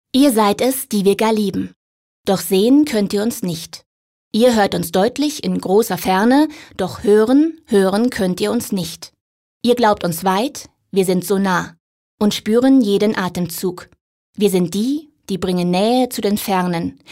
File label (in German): sachlich, frauen; sprecher